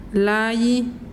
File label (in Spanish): vibora, mazahua